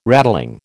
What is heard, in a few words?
"rattling", American